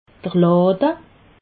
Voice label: "lade", alsace

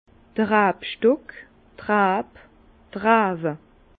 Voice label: "rabstock", Bas Rhin; la vigne; vin

